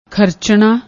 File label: "kharchna", Punjabi; ਖਰਚਣਾ